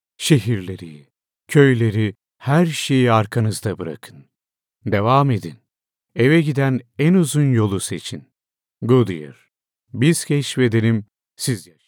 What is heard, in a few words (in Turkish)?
reklam duygusal